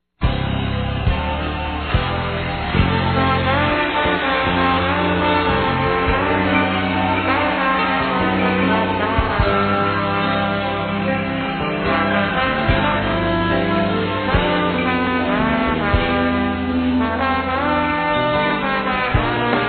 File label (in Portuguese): Romântico M